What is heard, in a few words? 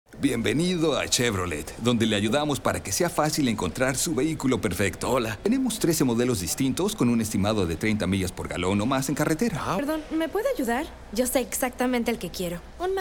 Radio; Chevy